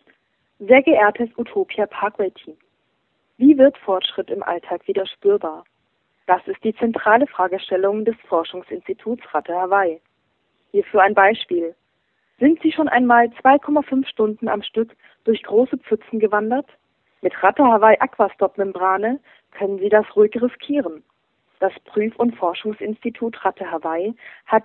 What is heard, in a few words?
Voicemail